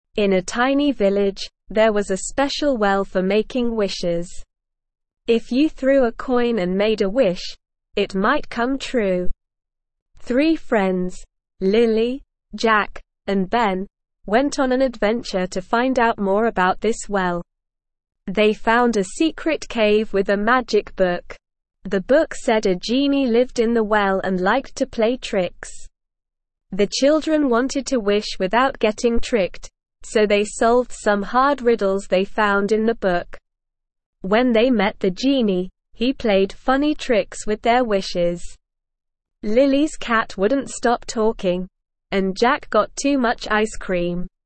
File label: ESL Short Stories for Kids Beginner SLOW Reading; The Wishing Well Mystery